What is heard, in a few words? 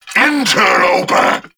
Vocal Attack; Warframe; Duviri; Dax